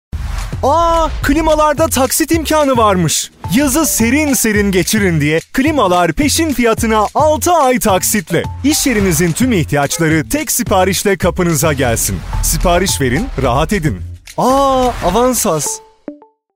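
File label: avansasta klima da varmis, Turkish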